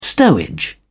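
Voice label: "stowage", British English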